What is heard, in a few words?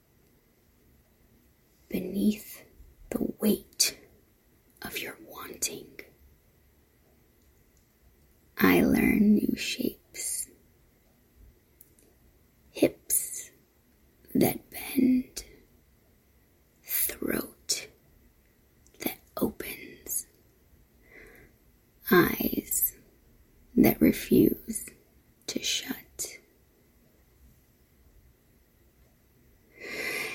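[F4M] [Script Fill] [Dark] [Dom Sub] [Control], female; [Oral]; Swallow the Dark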